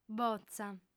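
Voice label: "botza", female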